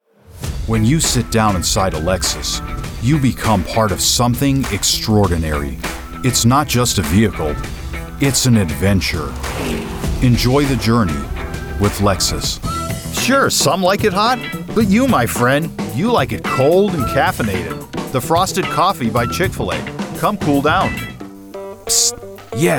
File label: COMMERCIAL, American male voice